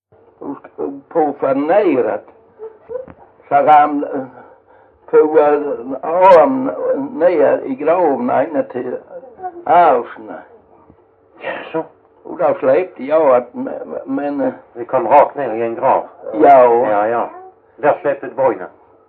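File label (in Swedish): Jämshög Blekinge, Svenska sagor dialekter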